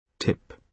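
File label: "tip", British English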